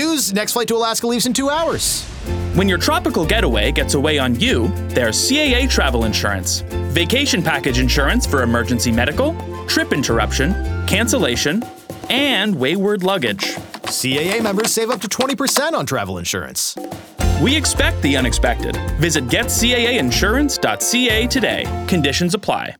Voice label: Baggage Loss RADIO SPOT; commercials psas